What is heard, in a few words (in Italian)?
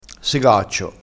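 "segaccio", vocabolario dialettale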